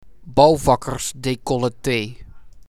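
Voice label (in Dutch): "Bouwvakkersdecollete", Dutch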